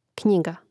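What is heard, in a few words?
"knjiga", Croatian; Mrežnik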